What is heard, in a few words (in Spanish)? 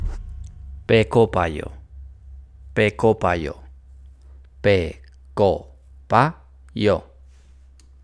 hambre en coreano pronunciacion